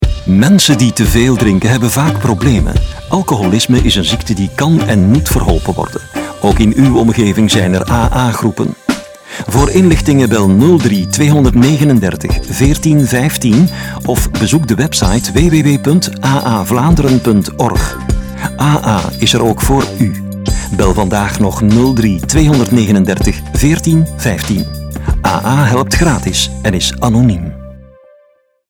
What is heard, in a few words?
Radiospot